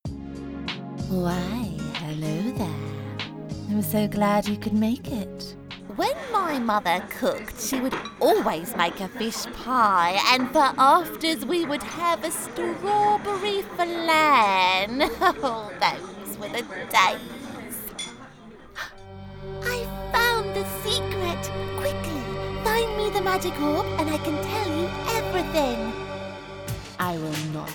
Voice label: Cartoon Montage